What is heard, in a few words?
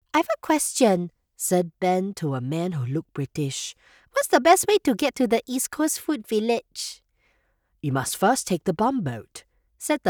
english american